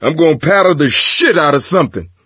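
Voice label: melee weapons, voice, male; Left 4 Dead 2; coach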